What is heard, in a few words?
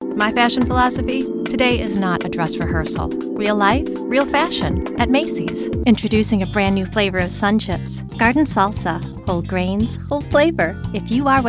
Voiceover, Commercial, Women; Chicago; Stewart Talent